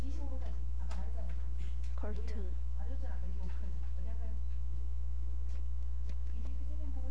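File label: "cartoon", spoken word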